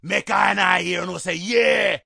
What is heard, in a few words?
say yeah, Rastafarian